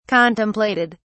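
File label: "contemplated", British English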